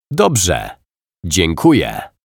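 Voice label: "Dobrze dziekuje", Polish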